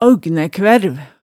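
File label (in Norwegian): "aaugnækværv", Hemsedal dialect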